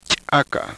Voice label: palatoalveolar ejective, quechua